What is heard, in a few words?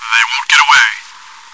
taunt, voice commands, bot; svencoop